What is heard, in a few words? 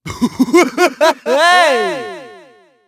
clown hey; halloween